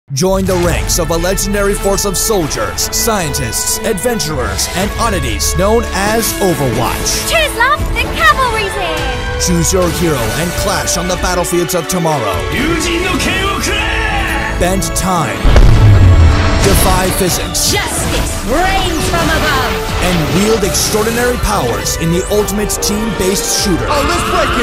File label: commercial; demo reel